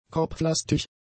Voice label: "kopflastig", German; adjectives

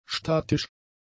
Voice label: "statisch", German; adjectives

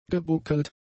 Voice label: "gebuckelt", German; adjectives

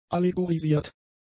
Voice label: "allegorisiert", German; adjectives

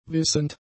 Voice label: "wissend", German; adjectives

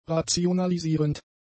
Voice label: "rationalisierend", German; adjectives

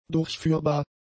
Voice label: "durchführbar", German; adjectives

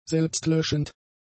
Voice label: "selbstlöschend", German; adjectives

